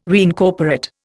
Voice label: "reincorporate", Indian